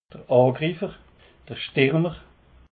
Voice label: "angriffer", alsace

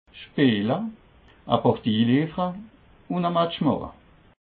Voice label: "matchemache", alsace

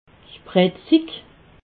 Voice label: "spritzig", alsace

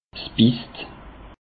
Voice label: "bisst", alsace; peche

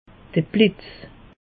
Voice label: "blitz", alsace; meteo

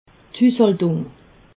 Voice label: "hushaltung", alsace

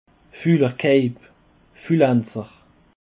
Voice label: "fulerkeib", alsace

